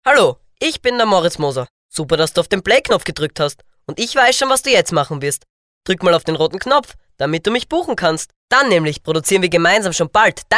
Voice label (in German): Sprecher Kinder Neutral; Kinderstimmen; kleine Profisprecher